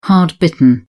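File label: "hard-bitten", British English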